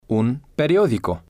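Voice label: un Periodico, Spanish, male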